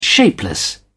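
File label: "shapeless", British English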